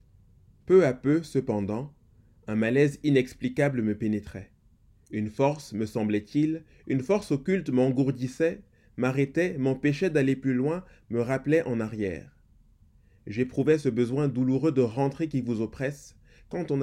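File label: Ominous reading clip (character)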